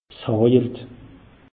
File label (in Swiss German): "hajelt", alsace; meteo